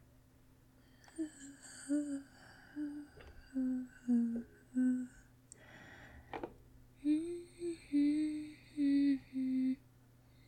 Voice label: ASMR; Mischievous Siren Climbs On To; NSFW